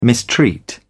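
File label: "mistreat", British English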